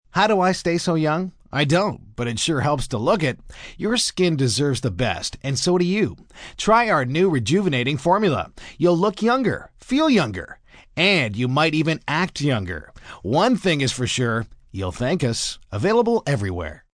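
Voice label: Stay Young Male, Commercial, skin care products, male announcer, advertisement; human voice speaking; Broadcast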